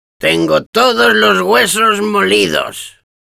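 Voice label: jeers, Spanish; Demoman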